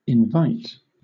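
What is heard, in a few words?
"invite", British English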